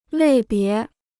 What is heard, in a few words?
"类别", Chinese